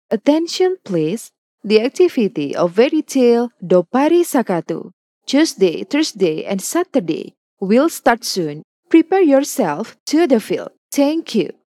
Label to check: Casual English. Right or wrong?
Right